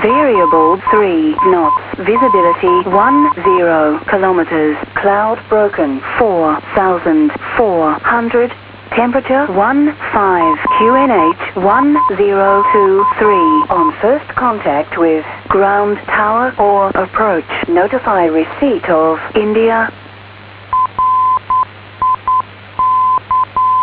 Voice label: Richmond Terminal Information Service - notice a lack of Voice initially; Richmond Terminal Information Service - notice a lack of Voice initially as it is being updated at the time.; atis